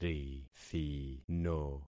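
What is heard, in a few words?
"rithino", concatenated syllables; Affixing experiment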